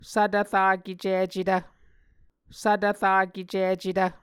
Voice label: "svtvrakecicetv", Muscogee